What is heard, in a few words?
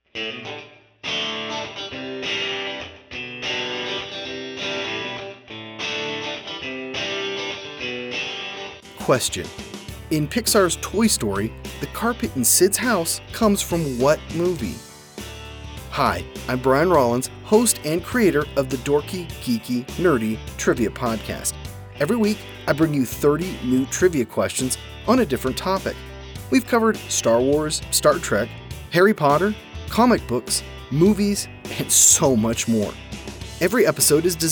Promo; Toy Story